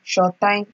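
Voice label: "shorttime", Nigerian Pidgin, female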